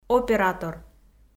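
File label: "оператор", Komi, female; kpv; audio dict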